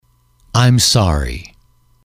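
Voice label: "I'm sorry", English, male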